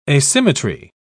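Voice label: "asymmetry", British English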